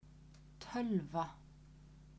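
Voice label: "tolva", Icelandic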